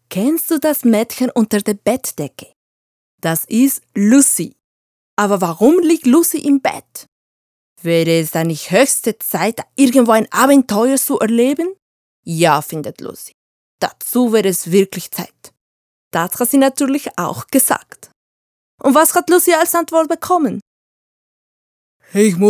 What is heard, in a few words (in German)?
deutsch kindergeschichte